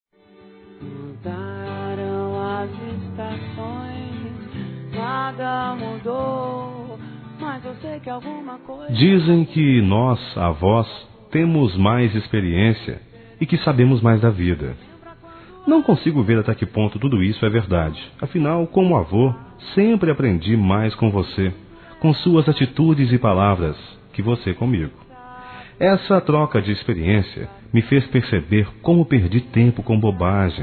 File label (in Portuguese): Aniversário Neto Masculino; Aniversários; Aniv Familiar